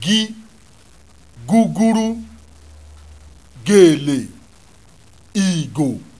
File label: g ggg, Yoruba; CONSONANTS; guguru-gele-igo